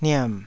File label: "niam3", Taiwanese syllable